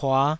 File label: "pua5", taiwanese